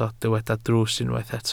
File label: "drws", Welsh